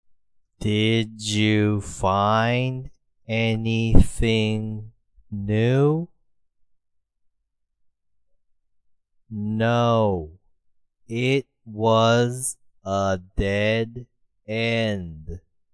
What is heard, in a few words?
slow